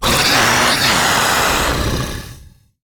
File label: Attack, Battle Barks; Warframe; MiTW